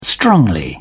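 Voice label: "strongly", British English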